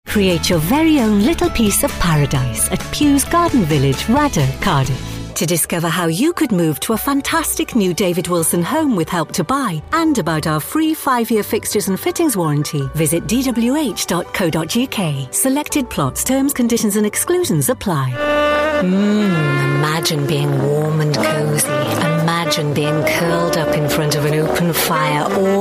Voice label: Commercial Mix